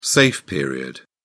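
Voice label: "safe period", English, British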